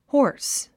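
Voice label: "horse", English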